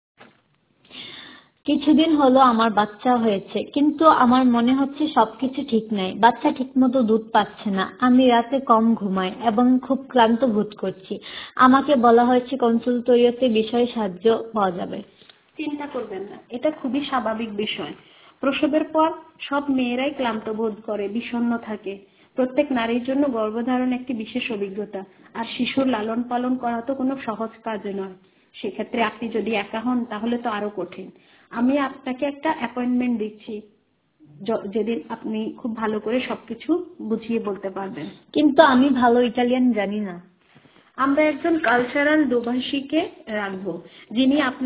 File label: Bangla